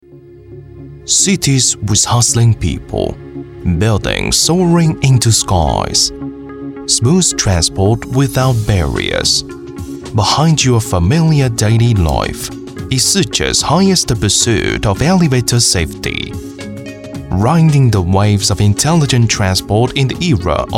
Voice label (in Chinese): 英文专题高端英音企业介绍